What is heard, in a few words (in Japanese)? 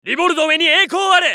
ソルジャー男 移動; リボルドウェソルジャー